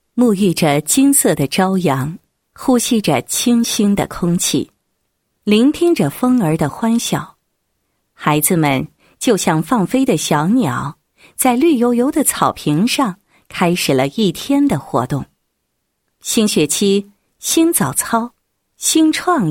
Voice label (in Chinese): 女 专题; 县幼儿园韵律操表演